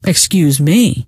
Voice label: excuse me, scientist female